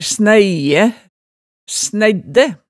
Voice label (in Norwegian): "snæiæ", hemsedalsmaalet, tale